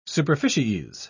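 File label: "superficies", English, uk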